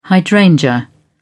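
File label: "hydrangea", British English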